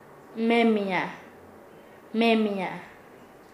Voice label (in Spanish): cantar, Nasa Yuwe; paez